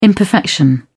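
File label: "imperfection", British English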